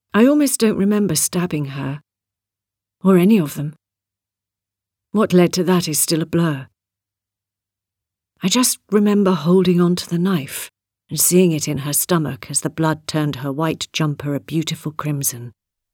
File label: Audiobooks